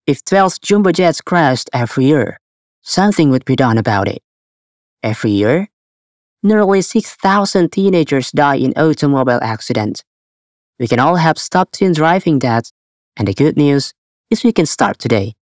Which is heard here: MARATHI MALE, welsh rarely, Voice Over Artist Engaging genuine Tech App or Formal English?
Formal English